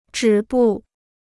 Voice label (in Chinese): "止步", Chinese